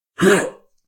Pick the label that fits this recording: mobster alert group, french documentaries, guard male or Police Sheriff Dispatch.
guard male